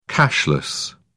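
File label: "cashless", British English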